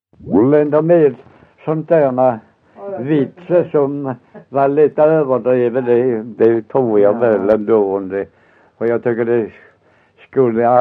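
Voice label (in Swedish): Össjö Skåne, dialekter; Folkminnen; Svenska sagor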